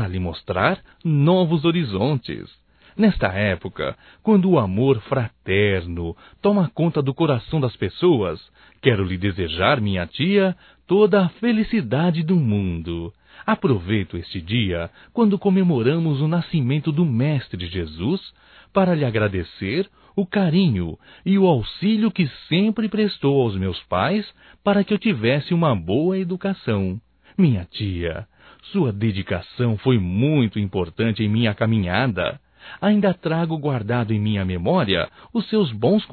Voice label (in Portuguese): NATAL TIA, masc; Natal e ano novo; Telemensagens 2017